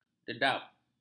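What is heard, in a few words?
"dedap", Malay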